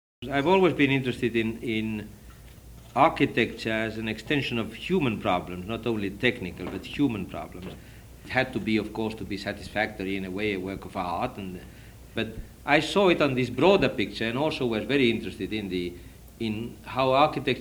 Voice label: architects spoken word, mono; sert; city of the future